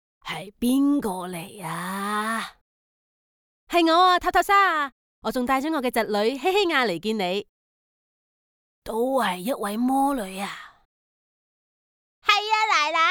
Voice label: female cantonese voice over character

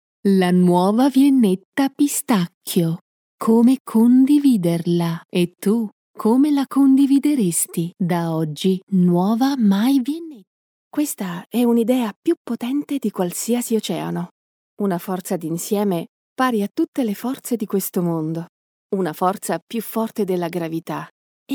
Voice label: female italian voice over sales

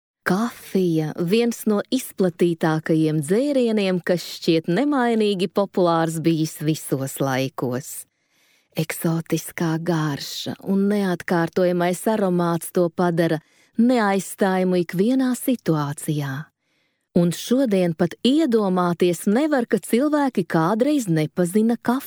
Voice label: female latvian voice over upbeat

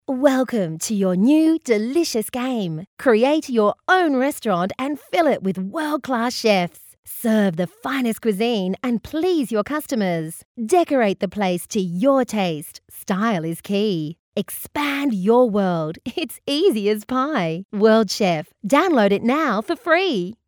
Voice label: female australian voice over upbeat

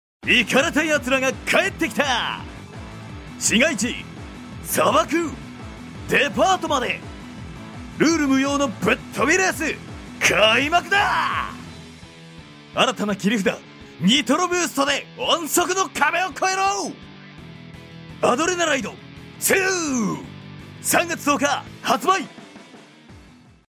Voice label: male japanese voice over talent sales

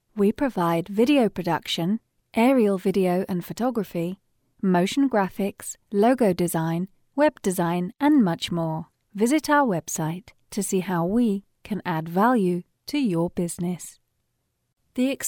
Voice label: female british voice over narrative; english british

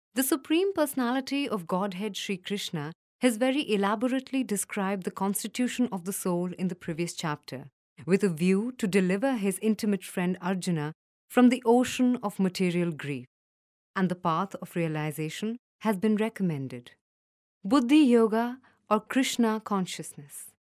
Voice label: female indian voice over narrative

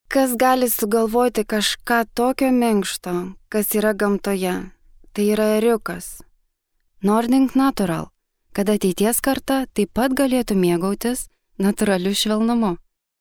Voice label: female lithuanian voice over sales

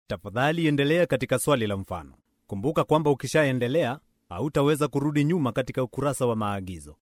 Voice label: male swahili voice over narrative

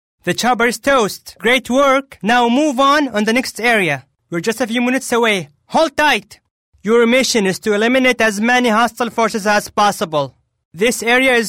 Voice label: male arabic voice over english

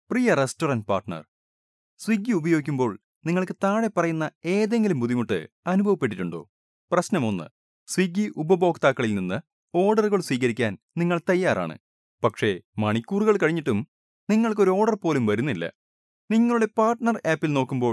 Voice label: male malayalam voice over talent upbeat

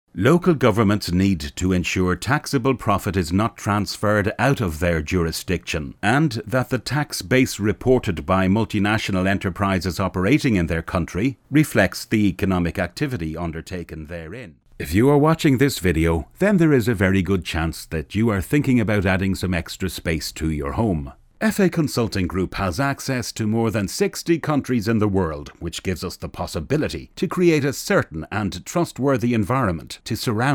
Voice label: male irish voice over talent narrative

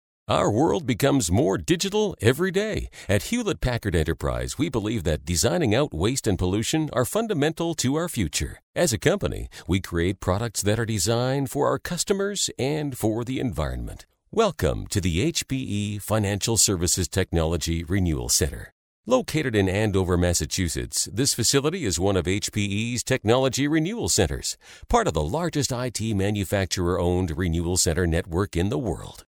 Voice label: male canadian voice over upbeat

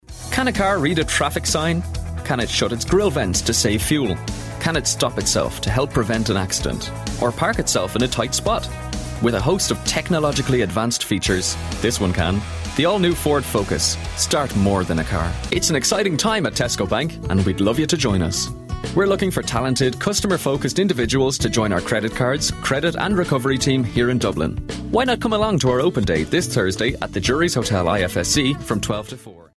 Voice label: male irish voice over sales

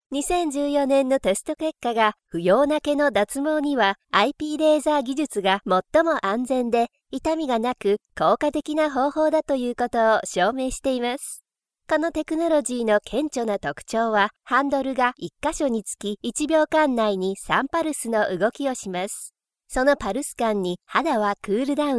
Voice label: female japanese voice over narrative

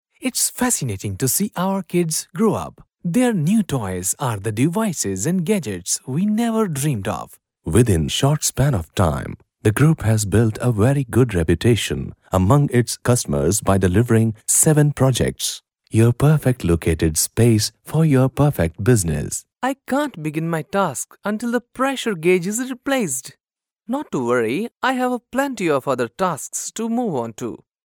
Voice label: male indian voice over talent character

